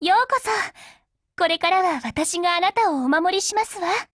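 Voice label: BUILD OVER Japanese, Voice; GF Alarm; G36CMod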